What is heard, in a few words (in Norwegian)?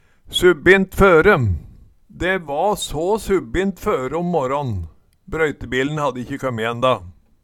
subbint føre, Numedalsmål